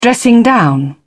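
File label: "dressing-down", British English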